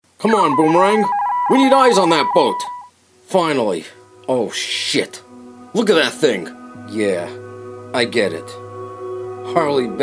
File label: animation, Voice-Over